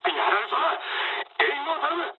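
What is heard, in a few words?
aircraft damaged mood high, speech japanese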